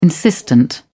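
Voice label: "insistent", British English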